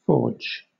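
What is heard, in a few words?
"forge" British English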